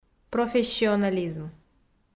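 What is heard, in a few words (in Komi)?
"профессионализм" Komi, female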